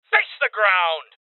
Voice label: Voices Order To Surrender Male